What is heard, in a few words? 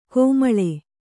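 "kammāḷa" Kannada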